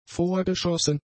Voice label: "vorgeschossen", German; adjectives